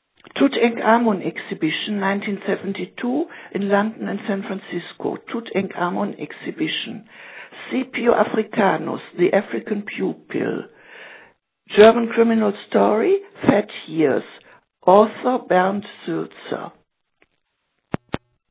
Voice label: Voicemail